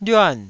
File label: "lyuan4", Taiwanese syllable